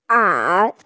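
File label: "ai3", Mandarin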